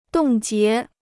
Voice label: "冻结", Chinese

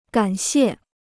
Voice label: "感谢", Chinese

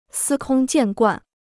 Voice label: "司空见惯", Chinese

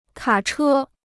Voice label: "卡车", Chinese

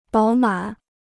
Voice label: "饱满", Chinese

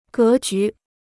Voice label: "格局", Chinese

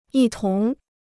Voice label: "一同", Chinese

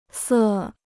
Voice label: "色", Chinese

